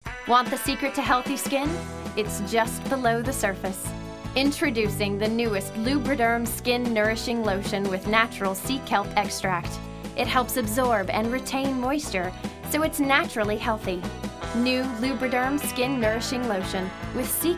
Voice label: Voiceover, Commercial, Women; Chicago